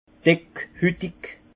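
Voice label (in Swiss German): "dickhitig", alsace Haut-Rhin; vin; peau grasse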